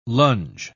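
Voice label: "longe", British English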